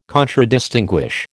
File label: "Contradistinguish", American